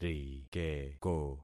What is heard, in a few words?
"rikeko", concat syllables; Affixing experiment